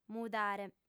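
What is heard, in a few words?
"mudare", female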